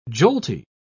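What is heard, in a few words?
"jolty", British English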